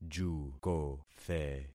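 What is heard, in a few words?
"jukothe", concatenated syllables; Affixing experiment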